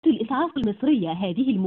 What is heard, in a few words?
Call recording Emergency number